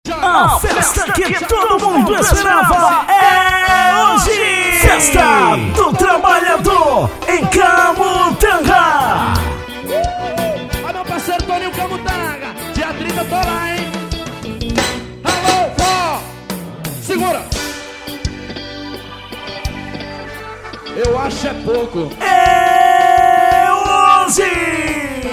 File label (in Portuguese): locutor chamada